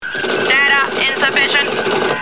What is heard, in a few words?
data insufficient, computer, voice